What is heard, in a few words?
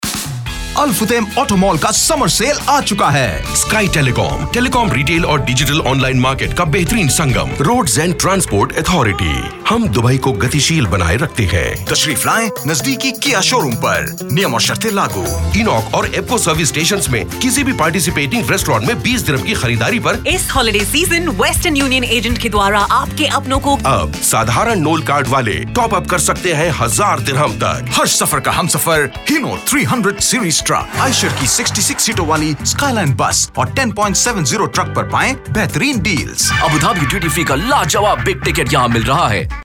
radio Hindi; radio showreel; AUDIO POST Production